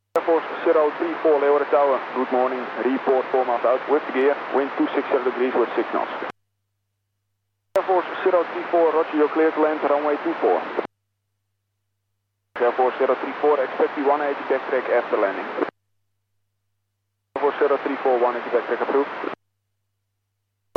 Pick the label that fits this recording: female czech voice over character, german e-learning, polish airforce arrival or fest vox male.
polish airforce arrival